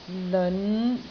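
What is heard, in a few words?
"leon5", Cantonese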